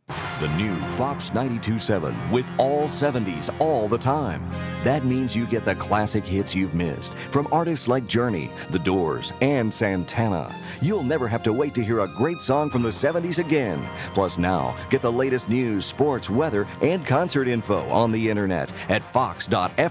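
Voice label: Radio Station Promo, male voice; FOX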